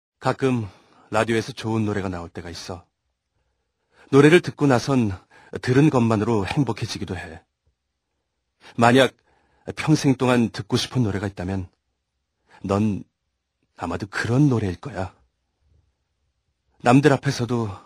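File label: Korean male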